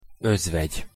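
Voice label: "ozvegy", Hungarian pronunciation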